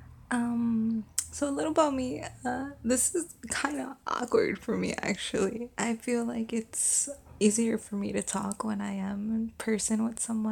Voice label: [F] Verification

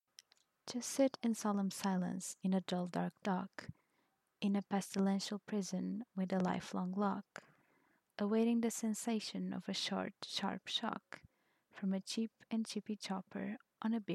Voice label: Articulation Practice Poem

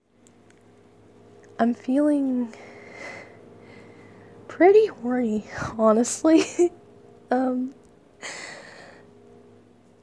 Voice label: Whispers, soft voice, whispers, heavy breathing; ramble turns ramblefap; some whimpers; gentle fdom; fsub; F4A; Whispers Craves Girls

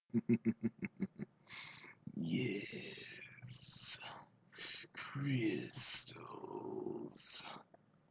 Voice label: *Laughing* Yes, crystals!; Vocaroo; King Sombra

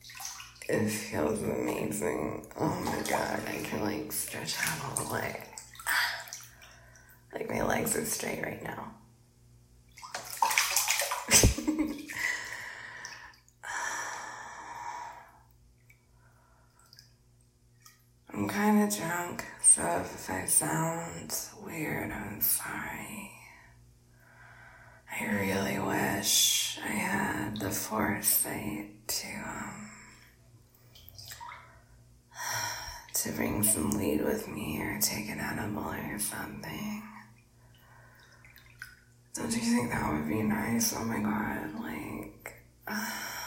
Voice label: Taking a Bath and Babbling

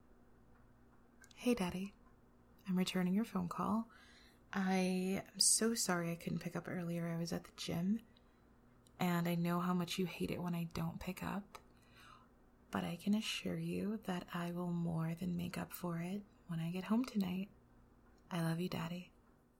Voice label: Voicemail

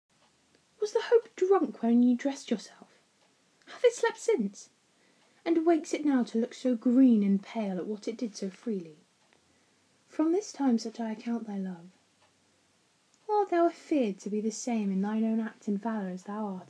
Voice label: Macbeth monologue

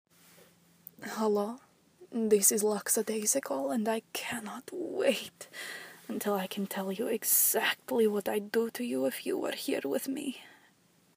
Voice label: Russian accent Sample

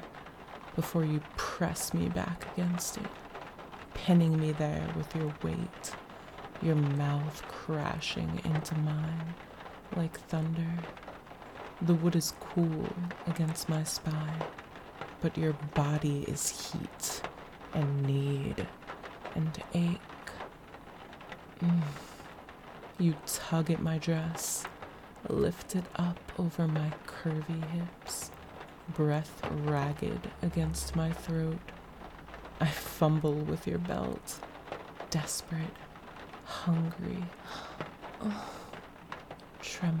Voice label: Narrative, Rain Storm, Passionate; Evening Storm

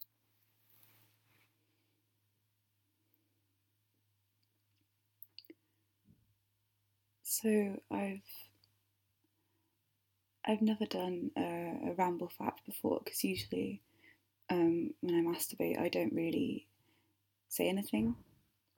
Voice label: F4A, Whimpers; How I Discovered GWA; Can barely string a sentence together

